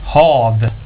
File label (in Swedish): "hav", swedish; plaatsen